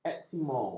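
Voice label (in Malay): "eskimo", Malay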